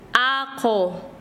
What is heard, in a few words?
"arena", Quechua Chanka